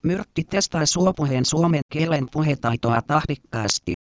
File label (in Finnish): suopuhe nainen